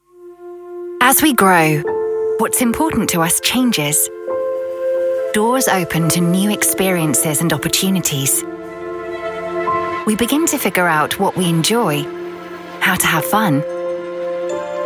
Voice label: narrative